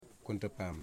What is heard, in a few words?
"one", Wamut